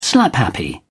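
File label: "slaphappy", British English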